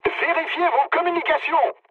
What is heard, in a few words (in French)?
chat, speech fr